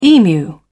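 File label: "emu", British English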